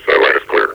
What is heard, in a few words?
sight line is clear, combine soldier; Sourceforts v1.9.2; hl2; npc